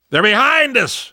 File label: watch out behind, survivor voice; Left 4 Dead 2; left4dead2 dlc3